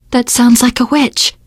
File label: heard witch, teengirl; survivor voice